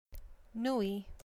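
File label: "nui", Hawaiian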